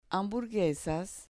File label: "hamburguesas", Spanish